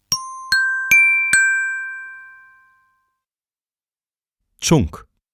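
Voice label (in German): "Tschunk", German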